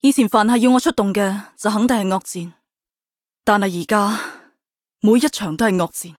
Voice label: Cantonese; Hoshiguma